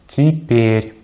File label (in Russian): "теперь", Russian